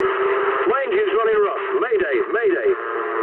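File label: damaged engine mood low, speech English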